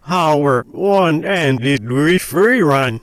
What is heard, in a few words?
backwards talk backwards